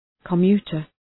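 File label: "commuter", English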